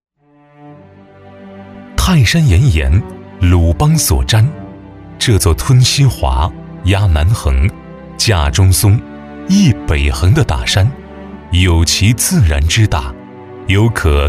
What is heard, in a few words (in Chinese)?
男, 宣传片, 大气抒情; 男88-抑扬顿挫 大气浑厚; 泰山